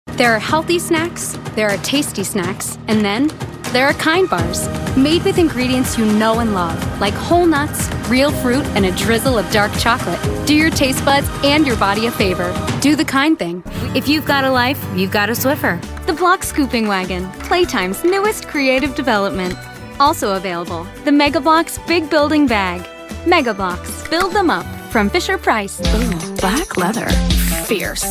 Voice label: 20s30s Female Commercial